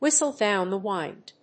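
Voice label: "whistle down the wind", English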